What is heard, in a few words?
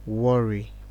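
worry, Nigerian Pidgin, male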